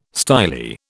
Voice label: "styli", British